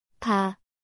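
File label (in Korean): "바", Korean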